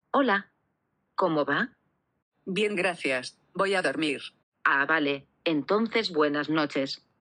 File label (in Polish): dialog